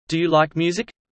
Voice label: fast; studying abroad